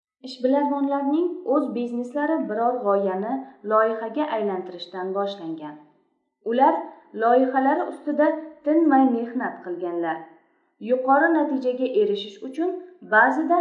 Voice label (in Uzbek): reklamasi; 94 loyiham